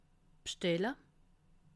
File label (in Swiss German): "bstelle", alsace